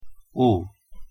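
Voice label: "ᅮ", Korean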